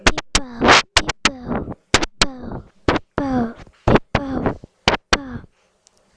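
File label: "puppy", spoken word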